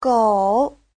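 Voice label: "gǒu", Mandarin Chinese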